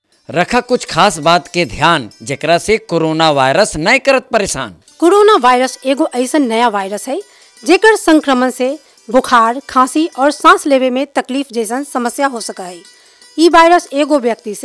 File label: Magahi Radio Spot hand washing; Covid19